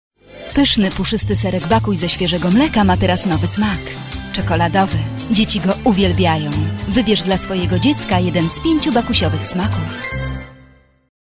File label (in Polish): reklama